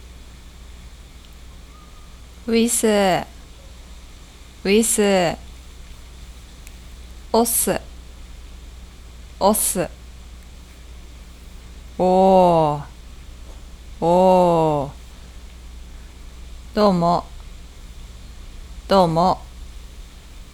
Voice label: Aisatsu casual